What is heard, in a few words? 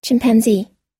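"chimpanzee", English pronunciation; long i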